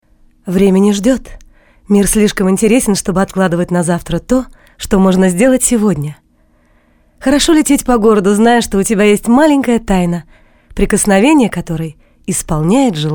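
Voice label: Russian, female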